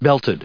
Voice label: "belt", us

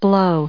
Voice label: "blow", en us

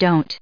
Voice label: "don't", en us

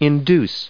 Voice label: "induce", us

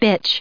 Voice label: "bitch", us

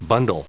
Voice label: "bundle", en us

